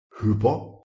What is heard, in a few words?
"h1upo" PIE